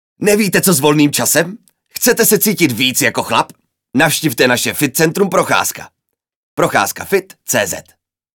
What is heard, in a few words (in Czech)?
reklama; Sherlock Holmes